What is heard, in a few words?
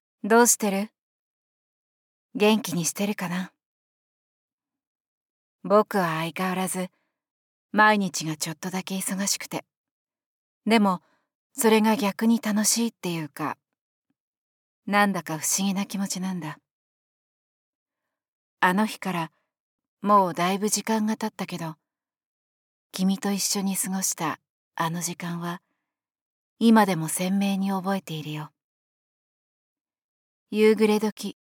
female